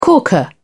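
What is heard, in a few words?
"corker", British English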